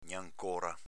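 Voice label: "gnancora", Venetian dialect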